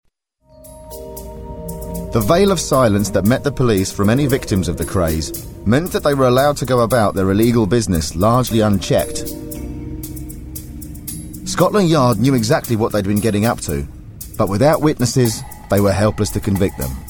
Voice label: Narration; Vocal Point

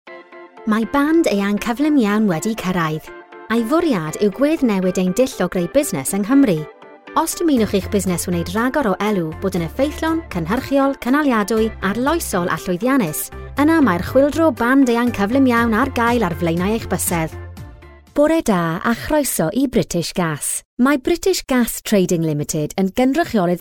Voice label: Corporate Welsh

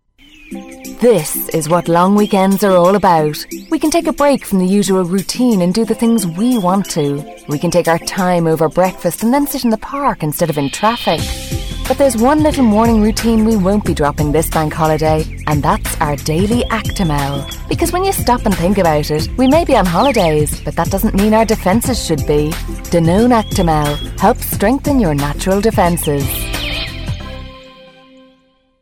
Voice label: Commercial; Actimel; Vocal Point

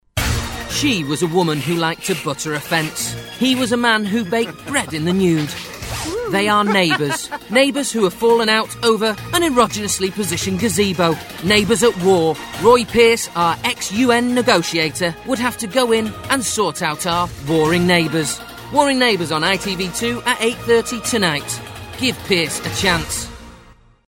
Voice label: Commercial Neighbours

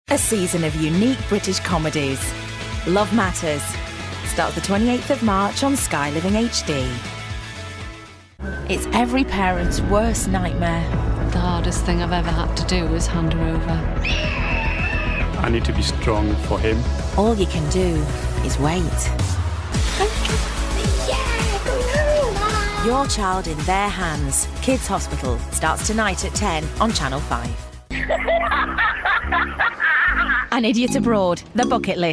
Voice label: Promos

